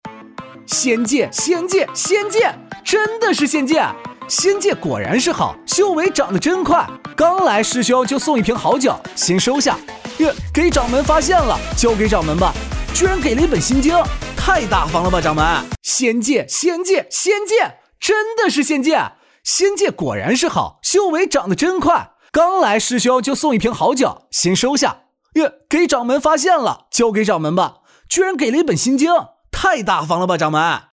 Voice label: 信息流 普通话; 仙界

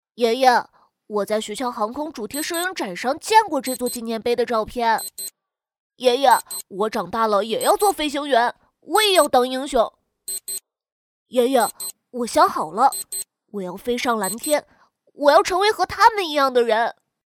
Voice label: 航空 小 男童

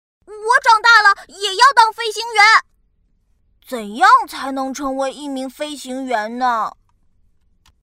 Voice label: 角色男童活力积极勇敢

